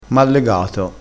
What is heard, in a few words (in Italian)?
"mallegato", crespinese